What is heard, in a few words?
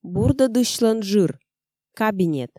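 Komi, female; бурдӧдысьлӧн жыр, кабинет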